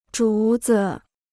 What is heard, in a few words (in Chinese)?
"竹子", Chinese